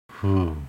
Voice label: "Hoo"; mission of maitreya